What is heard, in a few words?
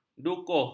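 "dukuh", Malay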